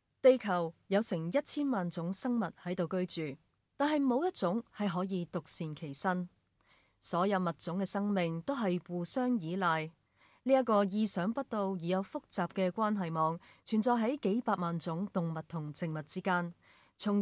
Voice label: CANTONESE female